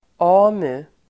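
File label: "AMU", Swedish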